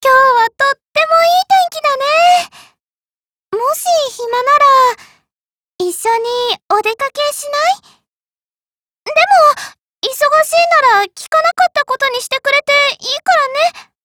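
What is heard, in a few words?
dialogue, Japanese; Medusa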